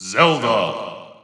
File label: English Announcer; Zelda; SSB4-SSBU